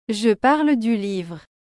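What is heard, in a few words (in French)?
Je parle du livre, French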